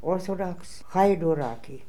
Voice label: "Orthodoxhydooraki", pronounciations; Fourth Way; Beelzebub